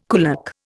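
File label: "kulak", Indian English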